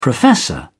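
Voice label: "professor", English, British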